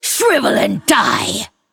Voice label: Female Lich Attack

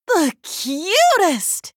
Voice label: Female NightElf Attack

